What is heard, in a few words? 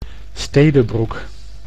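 "Stede Broec", Dutch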